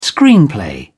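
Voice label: "screenplay", British English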